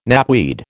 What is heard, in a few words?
"knapweed", American